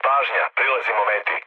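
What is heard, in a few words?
Serbian near waypoint mood low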